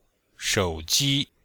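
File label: "shou ji", Chinese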